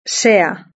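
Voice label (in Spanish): "sea", Spanish; verb-conjugator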